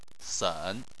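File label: "sen", Mandarin Chinese, third tone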